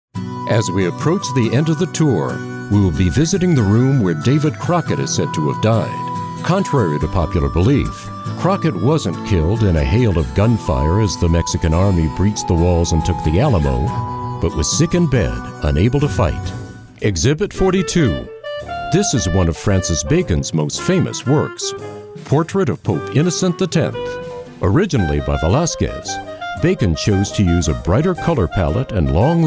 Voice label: Narration Demo